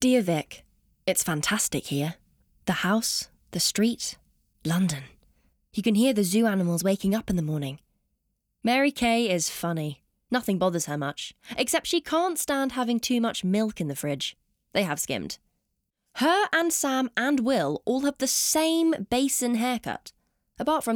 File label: Commercial Reel Narrative; Love Nina